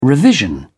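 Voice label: "revision", British English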